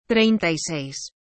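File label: "treinta y seis", Spanish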